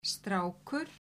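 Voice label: "strákur", Icelandic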